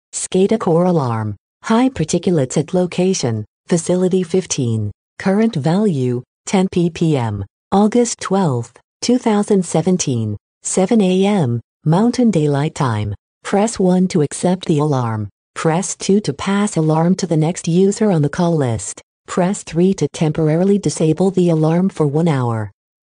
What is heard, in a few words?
alarm callout high particulate notification